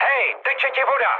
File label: damaged water, speech cze, mood med; War Thunder